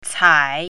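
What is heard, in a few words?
"cai3", Chinese pinyin, third tone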